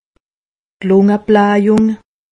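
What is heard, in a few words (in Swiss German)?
"lungeblahjung", Haut Rhin; medecine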